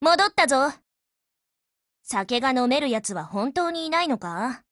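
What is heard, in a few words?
OPERATION OVER Japanese, Voice; GF Alarm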